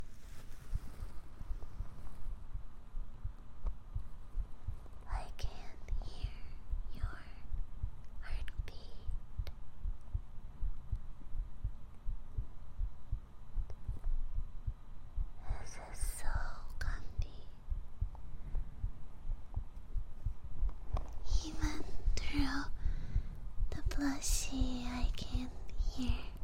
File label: CUDDLE ASMR